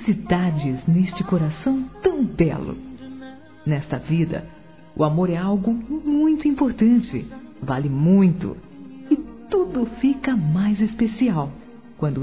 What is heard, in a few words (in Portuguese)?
aniv namorado romantico f; Aniversários; fem